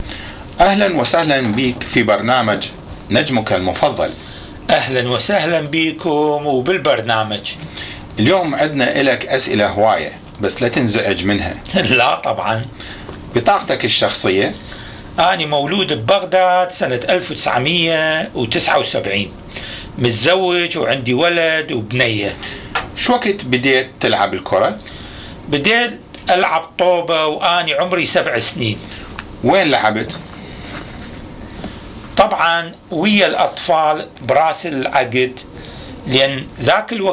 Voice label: Dialogue between broadcaster n soccer player, Iraqi Dialect